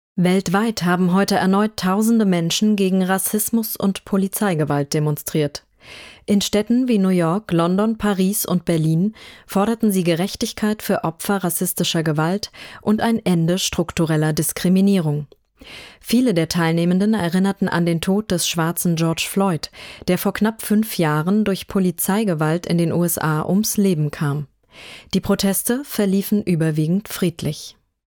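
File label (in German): Hoerprobe Nachrichten, Sprecherin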